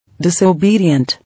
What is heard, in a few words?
"Disobedient", English